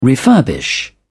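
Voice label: "refurbish", British English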